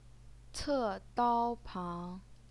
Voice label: "ce4dao1pang2", Chinese pinyin